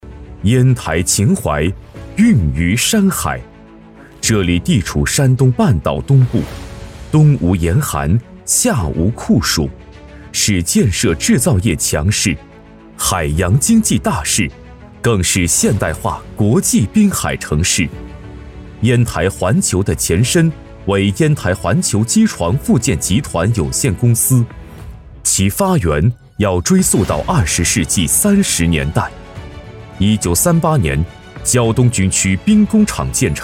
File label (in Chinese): 男, 大气企宣; 烟台环球